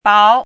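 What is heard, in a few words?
"bao", Mandarin Chinese, second tone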